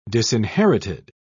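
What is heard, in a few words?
"disinherited", English, British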